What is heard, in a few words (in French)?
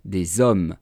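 "des hommes", French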